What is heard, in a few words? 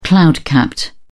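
"cloud capped", British English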